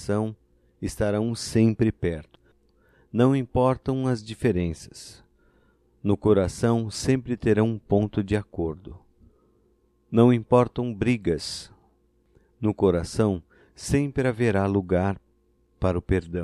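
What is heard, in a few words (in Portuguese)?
DIA DO AMIGO, masculino; Telemensagens; Só Voz; DATAS COMEMORATIVAS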